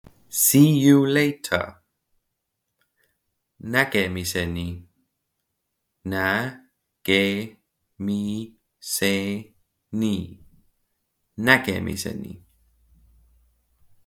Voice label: See you later, estonian; the talking estonian phrasebook